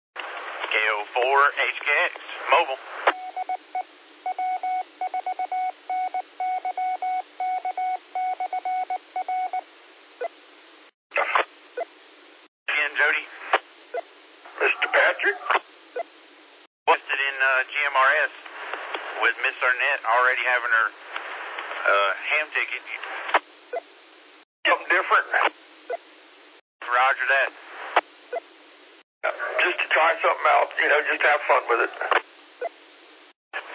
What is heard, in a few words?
qso rec simplex logic